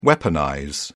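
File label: "weaponize", British English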